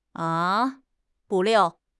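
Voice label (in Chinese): "不料", Chinese; HSK6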